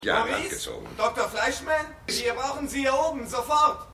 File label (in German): Stimme Mann; AusgerechnetAlaska